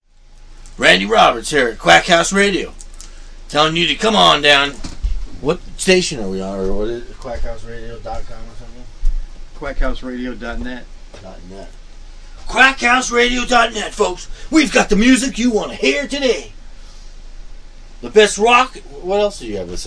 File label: Promo; STATION ID